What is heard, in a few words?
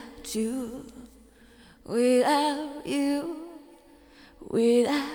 Mixed Berry Jam Voice, 70s Analog Funk; Sonic Foundry; What it Is! 70s Analog Funk